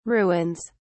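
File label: "ruins", English, British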